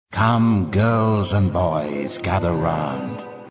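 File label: voice narration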